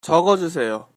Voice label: write, Korean

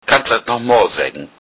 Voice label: say again, lowsaxon

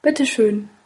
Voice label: you're welcome, german

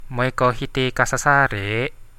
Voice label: do you come here often, Central Dusun

